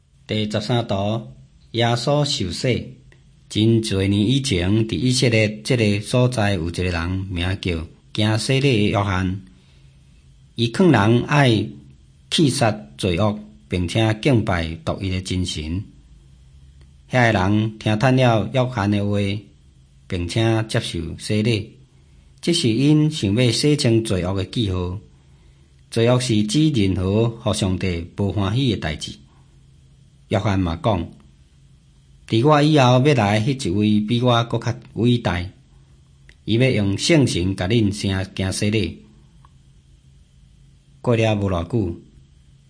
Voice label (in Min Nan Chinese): taiwanese, drama